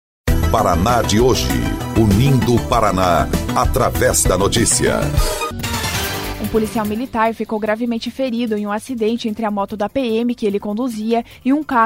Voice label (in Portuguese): BOLETIM; Policial Militar fica gravemente ferido durante batida na BR-277